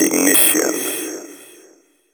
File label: techno male 140; Vocals